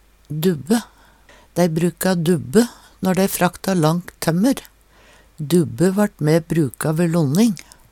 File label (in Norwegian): "dubbe", Numedalsmål